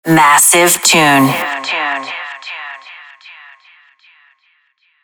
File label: sweeper massive tune female; killerintrosnet